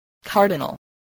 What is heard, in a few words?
"cardinal", spelling